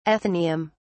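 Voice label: "athenaeum", English, uk British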